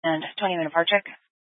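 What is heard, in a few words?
Incident Tactical, scanner audio recording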